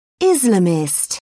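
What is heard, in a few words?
"islamist", British English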